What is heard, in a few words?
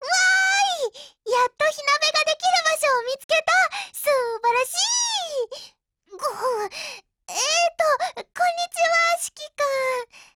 GAIN JP